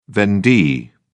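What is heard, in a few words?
"vendee", British English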